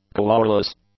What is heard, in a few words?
"colourless", English; adjectives